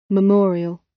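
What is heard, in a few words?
"memorial", British English; Time, history; Thời gian, lịch sử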